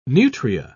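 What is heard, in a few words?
"nutria", English, British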